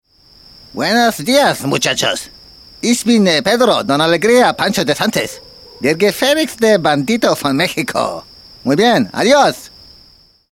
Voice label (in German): Mexikaner